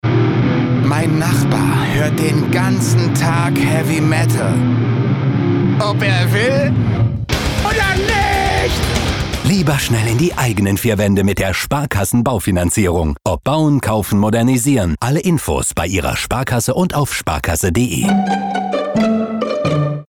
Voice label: Energisch

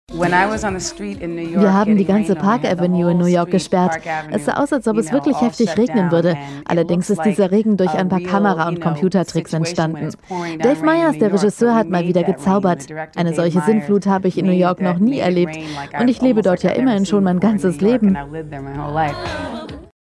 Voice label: Kommentar Neutral

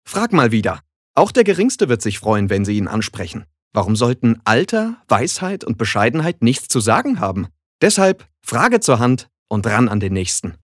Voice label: Werbung Dynamisch

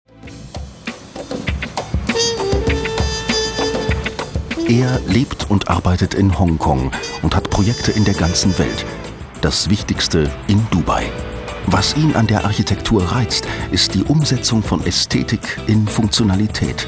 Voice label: Kommentar Variabel

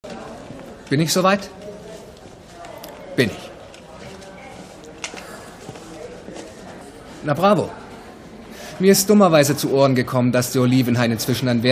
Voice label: Dynamisch

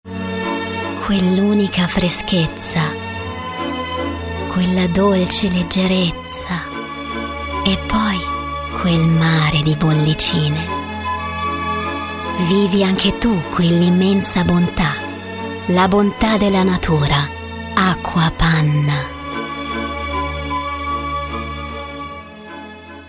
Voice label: IT Werbung Sanft

